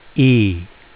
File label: name e, phonics; フォニックス